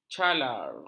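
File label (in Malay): "calar", Malay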